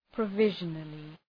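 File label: "provisionally", English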